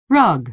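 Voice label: "rug", American English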